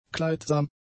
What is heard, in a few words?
"kleidsam", German; adjectives